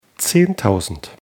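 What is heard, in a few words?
"zehntausend", German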